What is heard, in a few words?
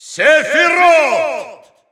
Russian Announcer; Sephiroth; SSBU